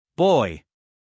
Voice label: "boy" en us male; everyone-can-use-english